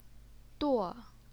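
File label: "duo", fourth tone, Chinese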